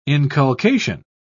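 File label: "inculcation", English, British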